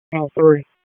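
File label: Raleigh Police Southwest District Dispatch; p25; wake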